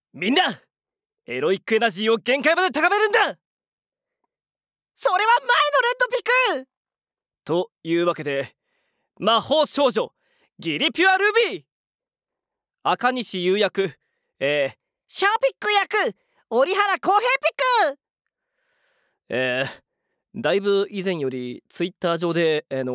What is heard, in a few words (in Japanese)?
フリートーク